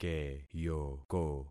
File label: "keyoko", concat syllables; Affixing experiment